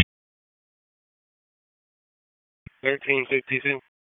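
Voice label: Police Downtown District Dispatch, p25; Raleigh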